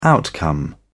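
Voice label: "outcome", British English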